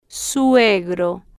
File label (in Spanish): "suegro", Spanish